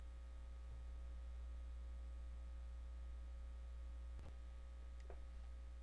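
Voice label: "lead", spoken word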